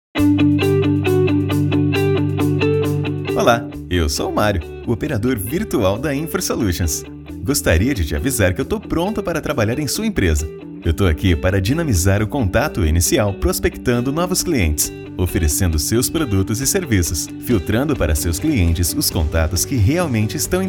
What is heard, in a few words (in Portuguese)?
voz masculina